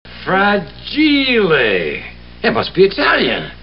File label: italian